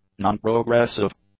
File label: "nonprogressive", english; adjectives